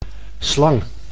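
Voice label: "slang", Dutch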